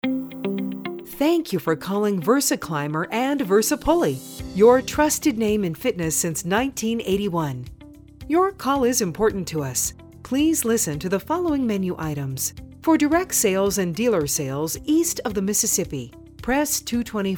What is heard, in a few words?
Company Greetings; VersaClimber